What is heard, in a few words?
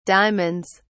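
"diamonds", British English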